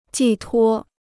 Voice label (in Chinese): "寄托", Chinese